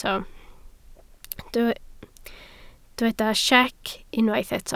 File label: "siec", Welsh